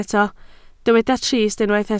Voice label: "trist", Welsh

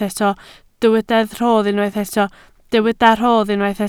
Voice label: "rodd", Welsh

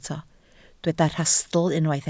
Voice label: "rhastl", Welsh

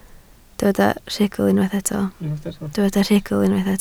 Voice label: "rhugl", Welsh

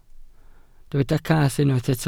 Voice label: "cath", Welsh

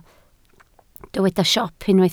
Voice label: "siop", Welsh

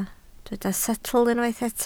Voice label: "setl", welsh

